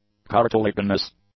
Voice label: "cartilaginous", English; adjectives

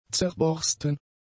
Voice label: "zerborsten", German; adjectives

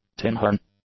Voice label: "tinhorn", English; adjectives

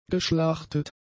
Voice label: "geschlachtet", German; adjectives

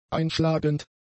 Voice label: "einschlagend", German; adjectives

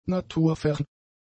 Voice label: "naturfern", German; adjectives

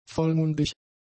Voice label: "vollmundig", German; adjectives

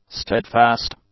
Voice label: "steadfast", English; adjectives

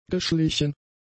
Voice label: "geschlichen", German; adjectives

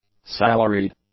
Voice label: "salaried", English; adjectives

